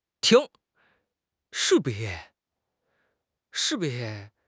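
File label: "ting2", Chinese; HSK4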